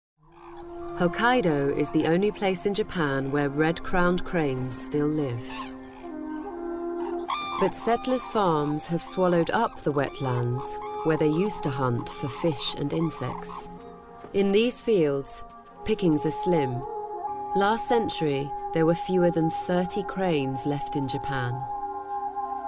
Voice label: Documentary; Japan

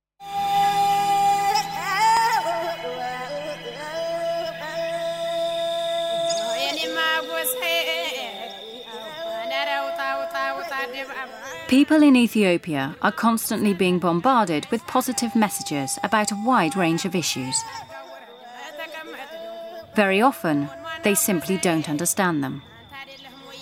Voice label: Documentary; Mereb

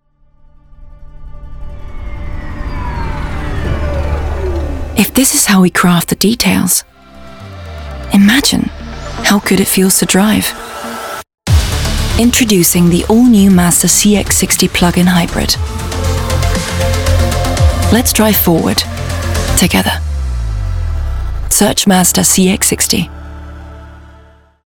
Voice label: Ad; Mazda

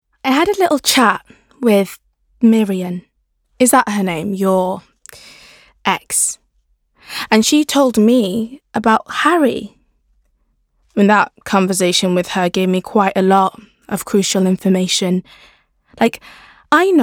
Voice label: Narration Reel

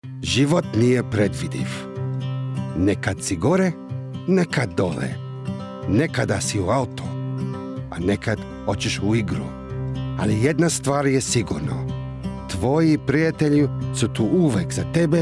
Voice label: serbian

